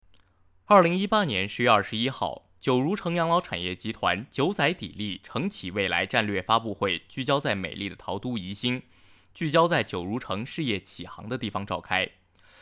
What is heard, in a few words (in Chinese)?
品牌纪录片